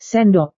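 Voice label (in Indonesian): "sendok", Indonesian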